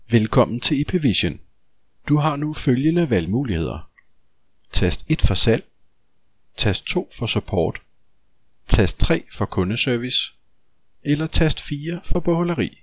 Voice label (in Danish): mand; Ipvision